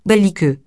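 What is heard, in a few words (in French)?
"belliqueux" French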